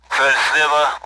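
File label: Speech, Russian, AWACS; target to the left; DCS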